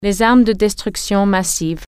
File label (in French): "weapons of mass destruction", French